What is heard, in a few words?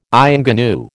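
"ingénue", American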